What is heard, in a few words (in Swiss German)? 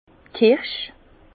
"kirsch", Bas Rhin; vin; cerise